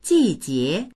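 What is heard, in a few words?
"ji4jie2", Chinese; 季节; 季節、シーズン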